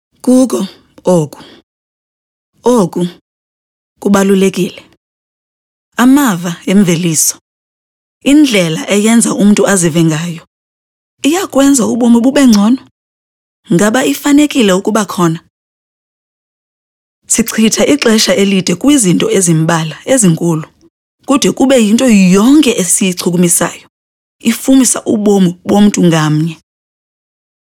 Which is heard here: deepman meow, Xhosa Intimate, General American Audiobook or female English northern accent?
Xhosa Intimate